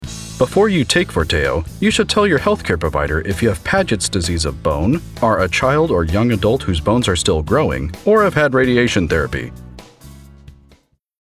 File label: Promo